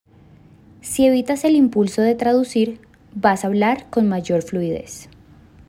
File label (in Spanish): Frase Femenina